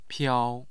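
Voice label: "piao", Mandarin Chinese, tone 1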